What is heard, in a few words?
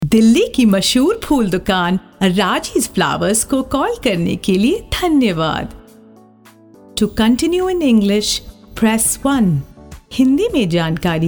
IVR Hindi